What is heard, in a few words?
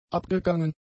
"abgegangen", German; adjectives